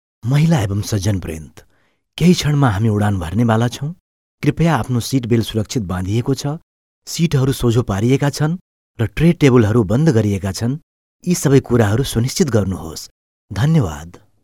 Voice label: Nepalese Male